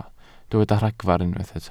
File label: "ragfarn", Welsh